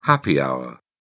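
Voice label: "happy hour", British English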